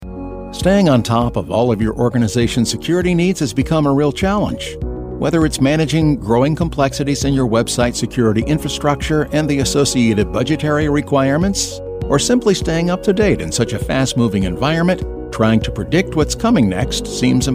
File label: american corporate